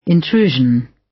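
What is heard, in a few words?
"intrusion", British; Crime and punishment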